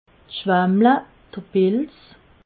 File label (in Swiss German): "schwammle", alsace